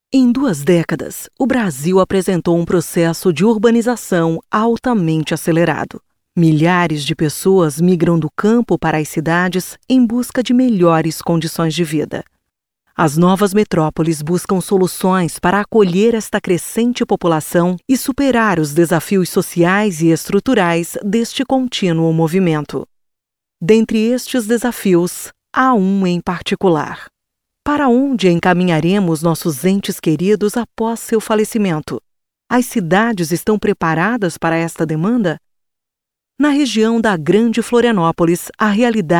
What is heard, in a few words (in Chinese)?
葡萄牙语女 外语